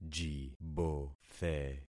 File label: "jibothe", concat syllables; Affixing experiment